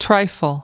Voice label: "trifle", us

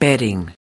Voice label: "bedding", us

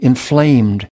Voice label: "inflamed", us

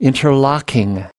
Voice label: "interlocking", us

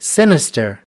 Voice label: "sinister", us

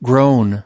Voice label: "groan", us

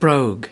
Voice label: "brogue", us

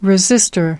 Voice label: "resistor", us

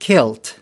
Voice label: "kilt", us

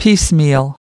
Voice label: "piecemeal", us

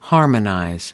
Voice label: "harmonize", US

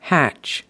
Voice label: "hatch", us

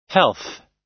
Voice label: "health", us

